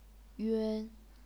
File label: "yun1", chinese pinyin